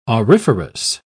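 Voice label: "auriferous", British English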